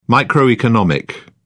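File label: "microeconomic", British English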